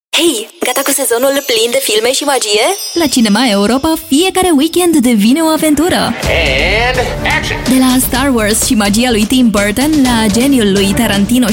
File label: radio commercial; avoa